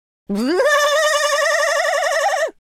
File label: scared, Super Villain speech